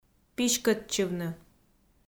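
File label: "писькӧдчывны", Komi, female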